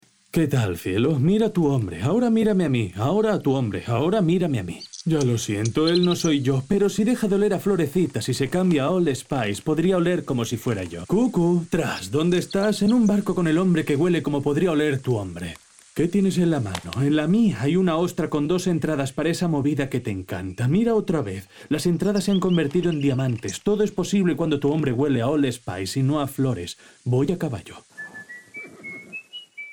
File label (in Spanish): anuncio; Old Spice